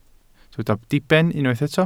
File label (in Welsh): "diben", Welsh